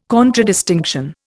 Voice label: "contradistinction", Indian